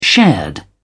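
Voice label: "shared", British English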